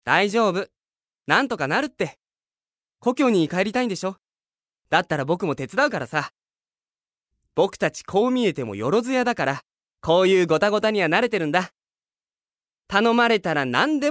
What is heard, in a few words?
male voice